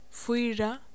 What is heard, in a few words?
"4", Faroese